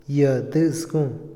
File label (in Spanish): ejército, Otomí, hombre; INALI